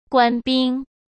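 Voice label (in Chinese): "官兵", Mandarin Chinese; hsk; chinese-flashcards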